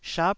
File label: "shap", Taiwanese